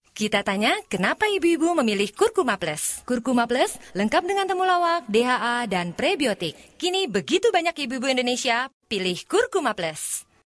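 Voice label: Bahasa Indonesia female voice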